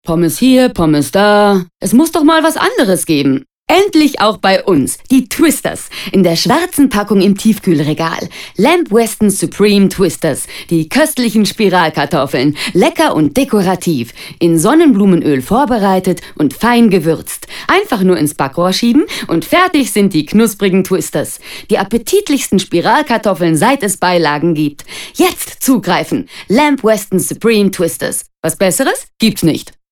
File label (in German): werbespot; lamb weston supreme twisters